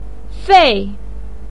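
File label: "fei", Mandarin pinyin, tone 4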